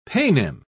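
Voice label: "paynim", British English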